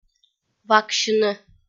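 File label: "ваксьыны", Komi, female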